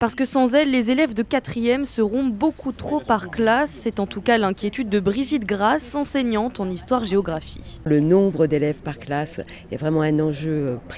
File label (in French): Reportage; collège Montoir